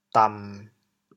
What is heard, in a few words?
"dam1", chaoyang